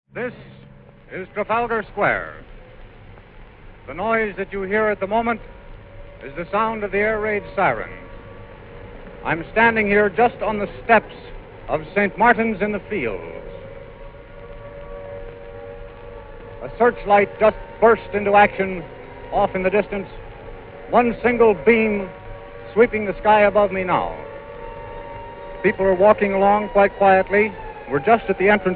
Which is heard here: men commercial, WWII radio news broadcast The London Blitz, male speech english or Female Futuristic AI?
WWII radio news broadcast The London Blitz